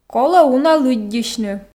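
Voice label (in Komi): колӧ уна лыддьысьны, Komi, female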